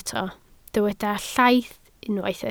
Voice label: "llaith", Welsh